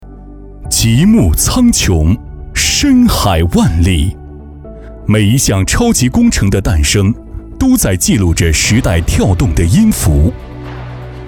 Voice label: 男 科技感; 顶立科技

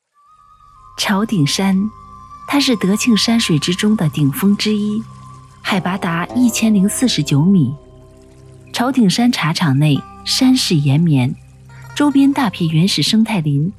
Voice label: 女 纪录片; 龙母故乡

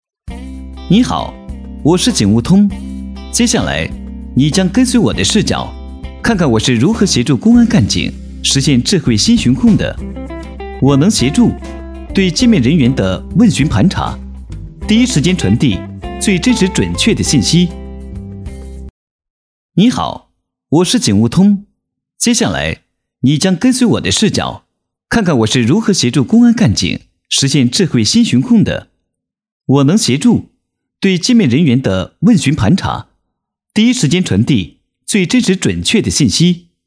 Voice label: 男 飞碟 交警

